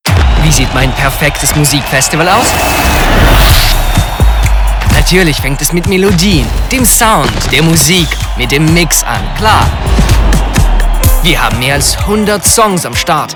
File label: male German and Russian German compilation